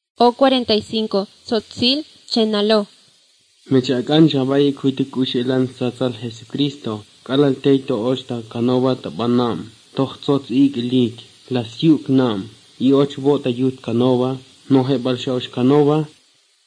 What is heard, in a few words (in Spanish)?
spanish mexico